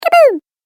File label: Cartoon Game Voice "Kaboom"